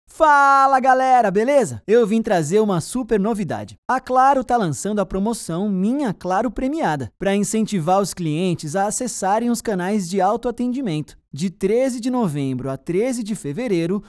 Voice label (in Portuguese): Locução; Claro